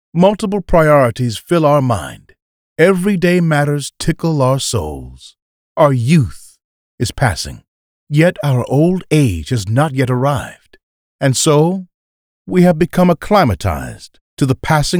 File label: male